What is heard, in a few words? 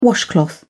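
"washcloth", British English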